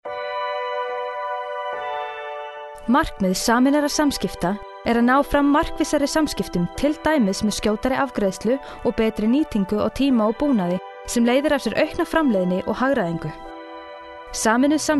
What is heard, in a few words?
Corporate